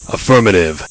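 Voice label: "affirmative", English; missionpack; rott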